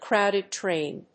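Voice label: "crowded train", English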